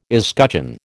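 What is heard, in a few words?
"escutcheon", American English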